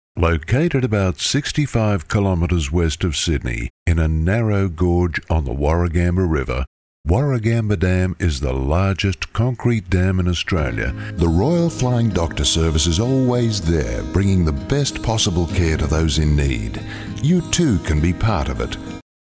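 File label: English Australian, male, narration; voice demos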